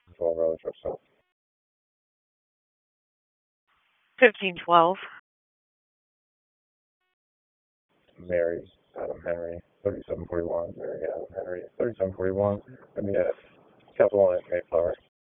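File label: Raleigh Police Southeast District Dispatch, p25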